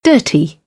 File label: "dirty", British; English; ɝː - ɜː